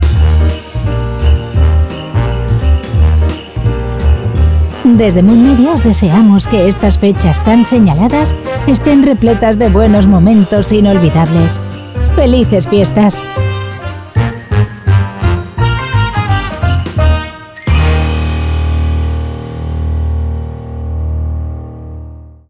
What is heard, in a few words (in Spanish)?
XMAS Message, ES